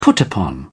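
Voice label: "put-upon", British English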